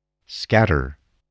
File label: "scatter", english spelling